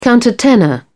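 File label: "countertenor", British English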